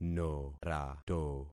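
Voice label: "norato", concatenated syllables; Affixing experiment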